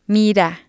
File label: "mira", spanish